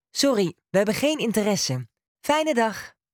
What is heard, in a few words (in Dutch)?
Ring Nederlandse snelle antwoorden